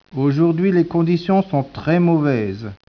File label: speech, French